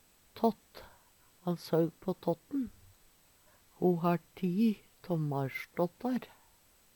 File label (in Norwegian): tatt, Numedalsmål; Substantiv hankjønn